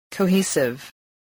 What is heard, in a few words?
"cohesive", voice